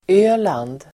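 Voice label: "Öland", Swedish; Swedish pronunciation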